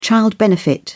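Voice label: "child benefit", British English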